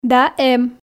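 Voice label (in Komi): "да эм", Komi, female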